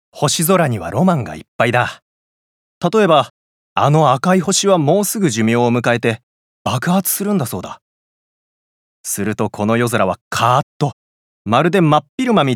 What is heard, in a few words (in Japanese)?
温厚中年、星空の魅力を伝える; 星好き中年